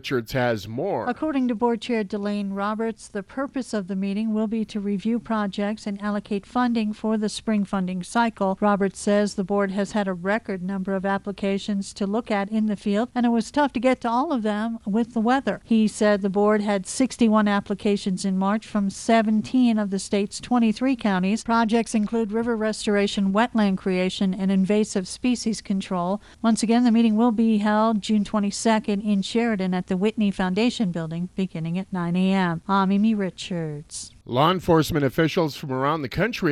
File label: wyoming radio news